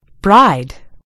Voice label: "bride", en GB; gcompris

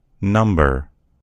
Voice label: "number", en US; gcompris

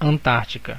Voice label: "antarctica", pt_BR; gcompris; geography

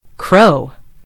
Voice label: "crow", en GB; gcompris

